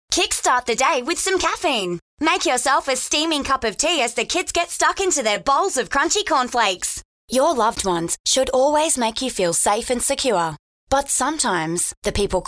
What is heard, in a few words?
Cold Commercial